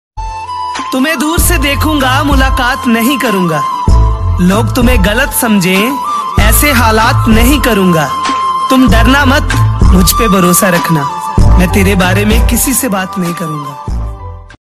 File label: Tik Tok Shayari Ringtone; Tumhe Dur Se Dekhunga